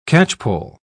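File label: "catchpoll", British English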